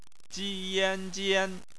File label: "jian", Chinese; phonetics; bpmf